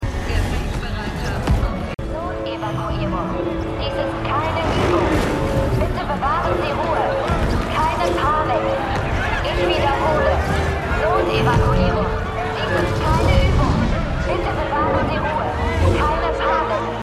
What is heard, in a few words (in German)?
Durchsagen; Foundation